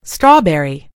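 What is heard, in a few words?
"strawberry", British English; gcompris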